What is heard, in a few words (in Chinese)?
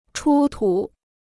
"出土", Chinese